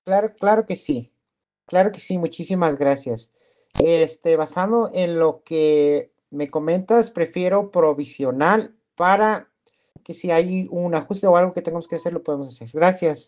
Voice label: whatsapp ptt